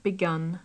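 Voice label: "begun", British English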